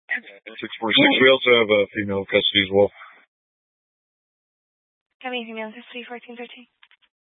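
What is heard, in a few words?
Sheriff Dispatch, Scanner audio recording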